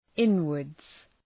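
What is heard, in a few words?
"inwards", English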